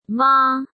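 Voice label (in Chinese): "妈", Chinese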